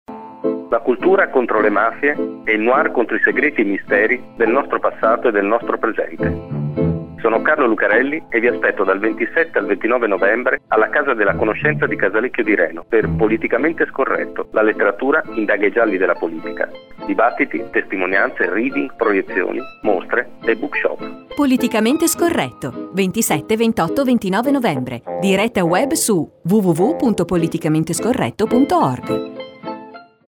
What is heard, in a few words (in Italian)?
Radiospot